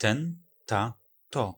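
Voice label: "ten ta to", Polish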